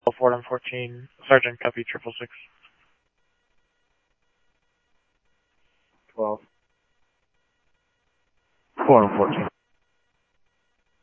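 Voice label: Mesa police department, scanner audio trunk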